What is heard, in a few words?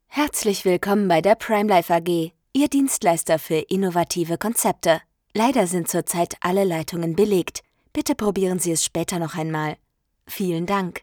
german midage